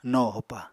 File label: "no hopa", Apatani